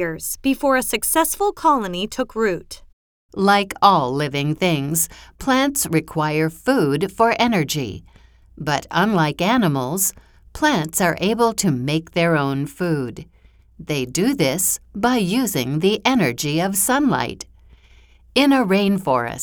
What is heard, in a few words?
English Female